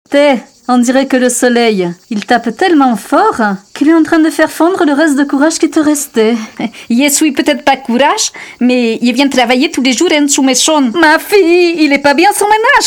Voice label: Accents